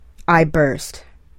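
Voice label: "I burst", English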